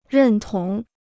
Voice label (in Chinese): "认同", Chinese